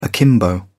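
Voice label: "akimbo", British English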